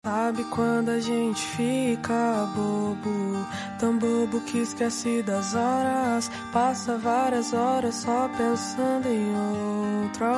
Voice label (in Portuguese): aniver namoro fem